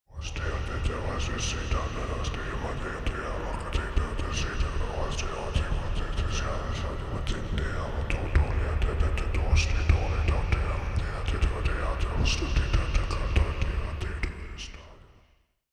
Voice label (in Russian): tihij muzhskoj golos chtenie molitvi effekt reverberacii